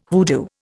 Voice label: "hoodoo", Indian